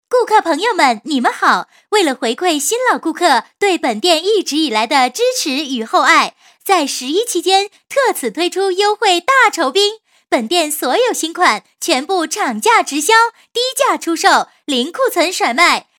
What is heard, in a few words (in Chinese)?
女 促销 商品促销, 国语女声配音